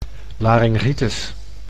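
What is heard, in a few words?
"laryngitis", Dutch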